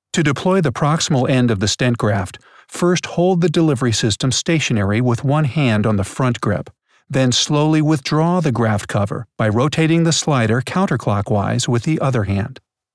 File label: Instructional